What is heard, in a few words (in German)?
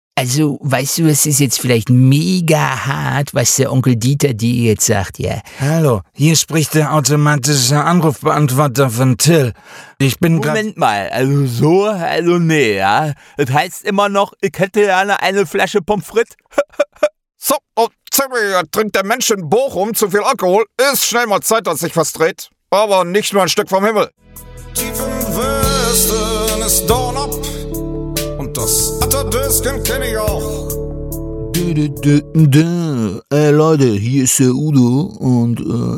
Stimmenimitation Medley Imitation; LOFT VOICES